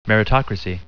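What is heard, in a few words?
"meritocracy", English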